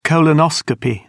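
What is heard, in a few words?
"colonoscopy", British English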